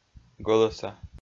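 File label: "гӧлӧса", Komi-Zyrian, male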